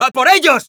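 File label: battle cry, Spanish; "¡A por ellos!"; Scout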